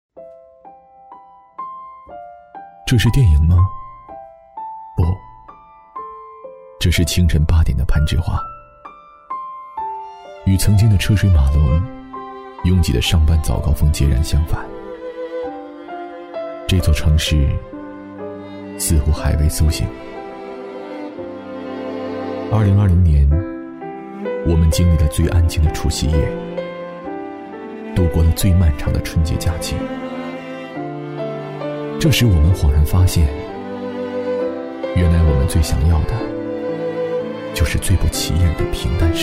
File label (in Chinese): 国语男-疫情防控类旁白 温馨